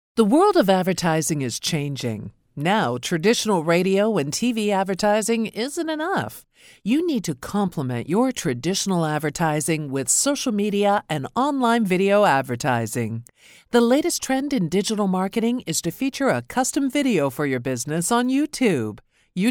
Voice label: english canadian narration